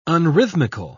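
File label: "unrhythmical", English, British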